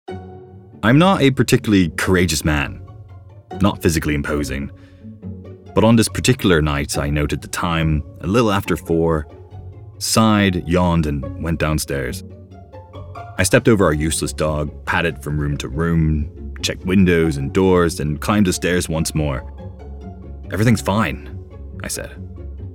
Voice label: Audio Book Radio Drama